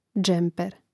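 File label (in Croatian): "džemper", hrvatski; mreznik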